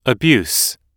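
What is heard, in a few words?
"abuse", US English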